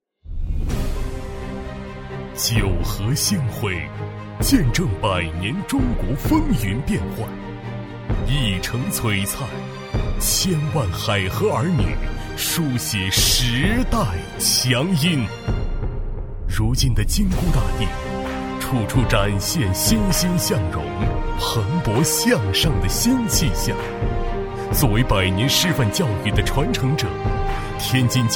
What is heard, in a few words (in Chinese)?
男 学校宣传 大气磅礴; 天津师范大学宣传片; 男D7-激情大气